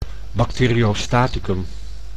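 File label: "bacteriostaticum", Dutch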